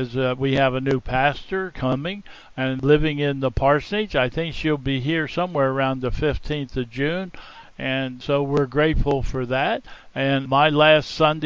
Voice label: Welcome and Announcements